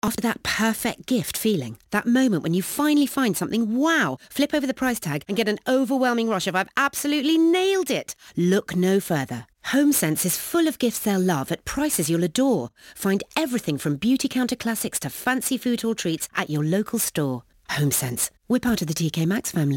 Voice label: radio; Homesense